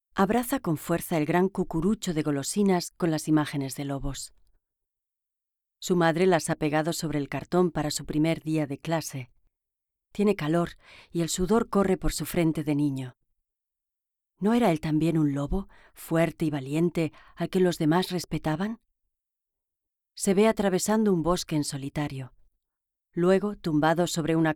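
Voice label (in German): Hörbuch spanisch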